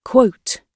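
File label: "quote", British English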